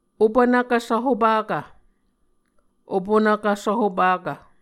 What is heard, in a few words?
"opunvkv svhopakv", Muscogee